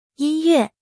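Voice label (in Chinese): "音乐", Chinese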